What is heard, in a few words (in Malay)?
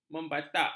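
"membatak", Malay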